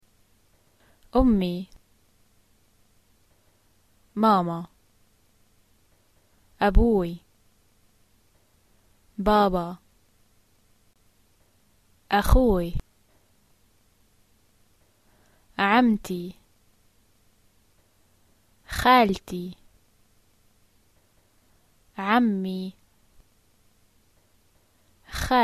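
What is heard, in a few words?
family, arabic, Jordanian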